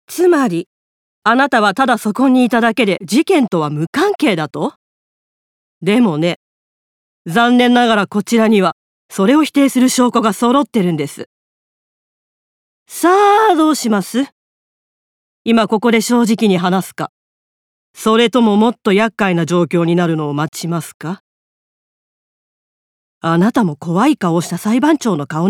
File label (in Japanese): 検事40代